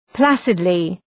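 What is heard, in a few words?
"placidly", English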